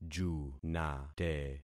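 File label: "junate", concatenated syllables; Affixing experiment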